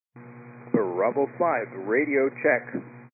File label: 122 725MHz, scanner radio